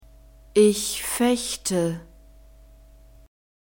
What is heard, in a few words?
"ich fechte", German; verbs present tense irregular